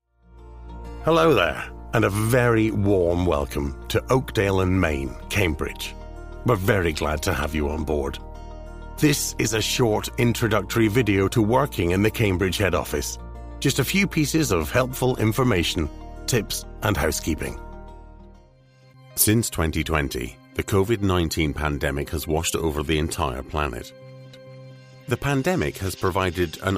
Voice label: english scottish corporate videos